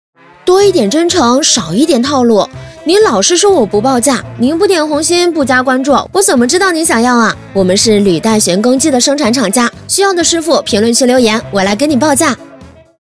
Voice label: 女 信息流 素人化; 叉车挖掘机商品; 轻松活泼

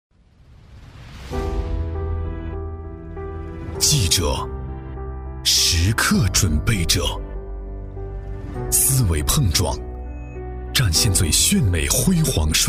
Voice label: 男, 走心, 低沉走心; 大气磁性 大气浑厚

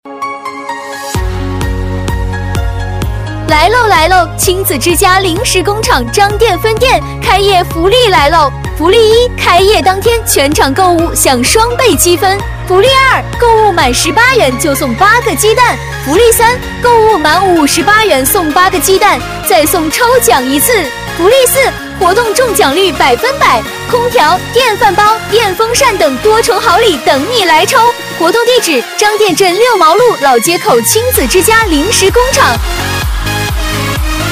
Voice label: 激情欢快女 零食工厂开业福利; 激情力度

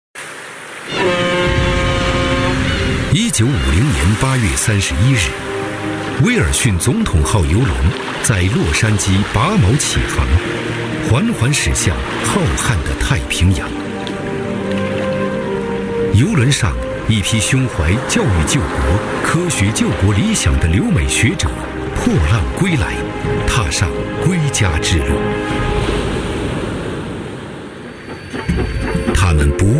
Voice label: 男, 人物纪录片, 质感大气; 中英双语; 大气浑厚; 大国工匠; CCTV

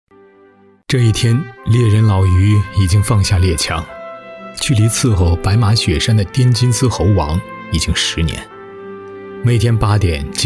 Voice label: 男 纪录片 客观讲述, 浑厚大气 自然诉说; 腾讯人物讲述

